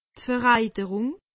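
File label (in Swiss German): "vereiterung", Alsatian; medecine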